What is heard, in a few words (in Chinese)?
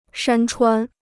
"山川", Chinese